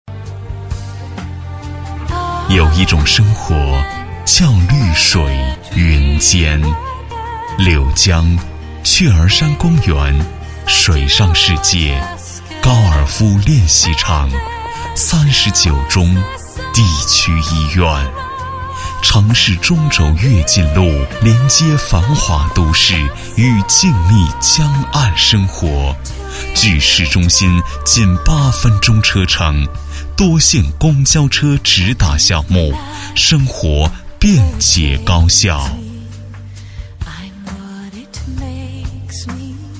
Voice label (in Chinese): 男3-房产广告-温情房产, 国语男声配音